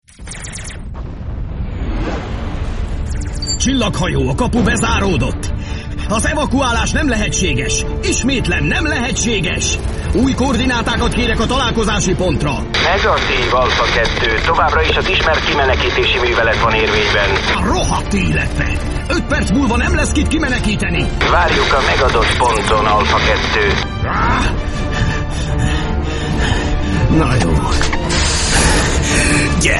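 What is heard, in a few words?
Hungarian M Gaming, male; voice over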